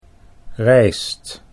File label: "rijst", Dutch